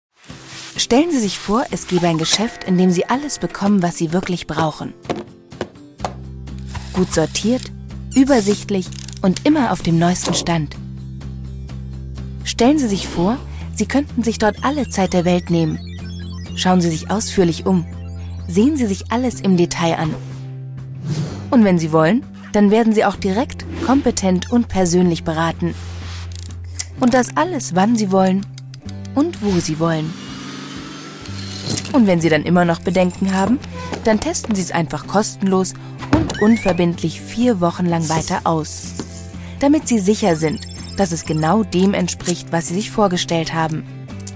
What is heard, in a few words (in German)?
Kommentar Neutral